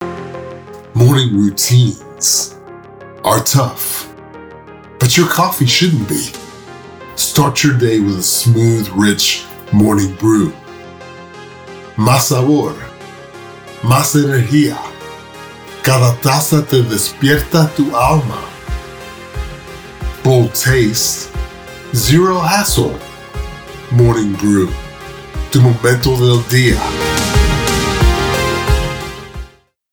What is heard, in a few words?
Billingual; Rode